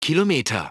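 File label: "kilometers", English; iOS 4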